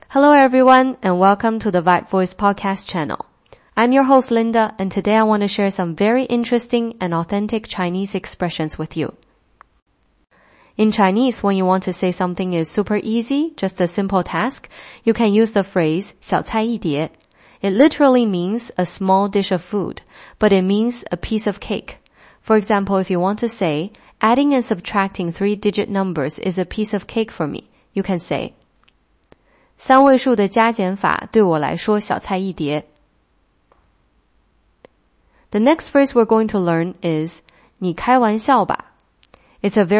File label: Mandarin to English; Microsoft VibeVoice; ai podcast model